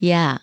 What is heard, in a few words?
"야", korean; vowel